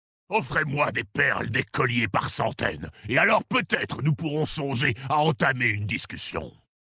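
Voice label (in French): French Male Blague; Orc Maghar; Discussion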